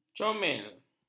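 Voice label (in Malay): "comel", Malay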